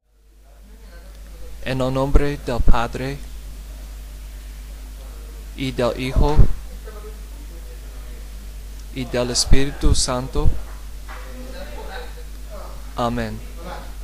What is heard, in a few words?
Hail Mary Spanish, prayers audio